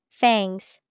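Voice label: fangs, English vocabulary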